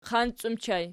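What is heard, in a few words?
"qanci" ergative plural, Archi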